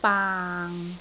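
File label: "baang6", Cantonese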